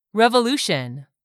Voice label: "REVOLUTION", english; 3000 words